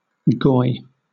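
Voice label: "goy", British English; pronunciations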